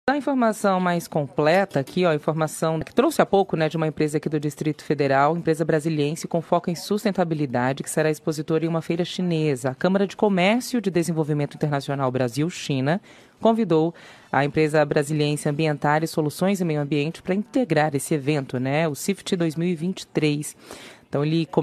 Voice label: Spot